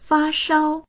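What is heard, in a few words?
"fa shao", Chinese; 发烧; 熱が出る; dictionary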